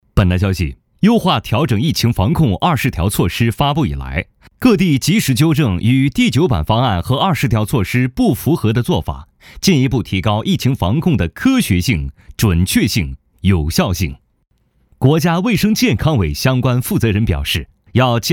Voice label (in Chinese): 男 新闻 干练正式; 新闻风格样音